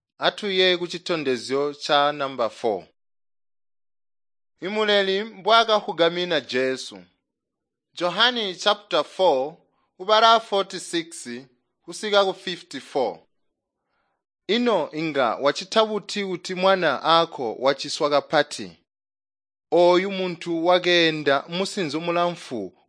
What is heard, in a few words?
tonga plateau, drama; chitonga bsz nt drama